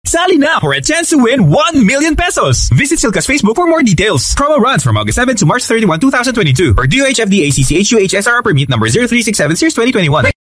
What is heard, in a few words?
Tagalog; SILKA